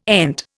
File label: "ENT", Indian